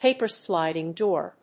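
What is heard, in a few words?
"paper- sliding door", English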